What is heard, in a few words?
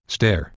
"steur", Proto Germanic